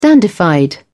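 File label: "dandified", British English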